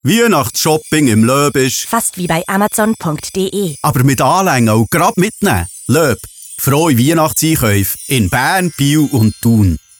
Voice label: Radiospot; LOEB; Amazon